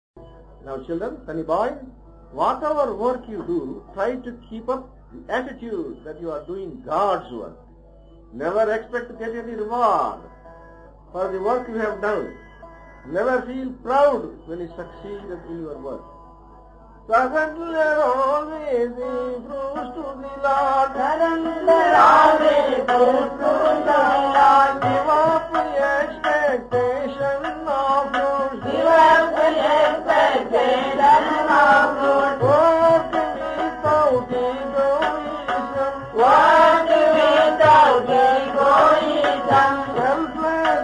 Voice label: INSTRUCTS KIDS CHANTS; Swami Sivananda